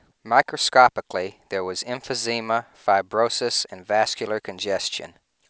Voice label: male clean 16k